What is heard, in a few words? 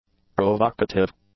"provocative", english; adjectives